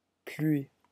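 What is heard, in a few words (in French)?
"pluie", French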